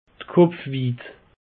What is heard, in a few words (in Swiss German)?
"kopfwiid", alsace; peche